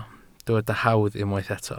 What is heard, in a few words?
"hawdd", Welsh